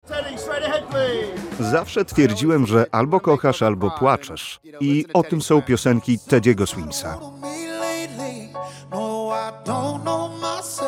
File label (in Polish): dubbing, lektor radiowy; 30-50 lat, lektor radiowy i konferansjer; IVR, reklama, szeptanki, audiobook; Mężczyzna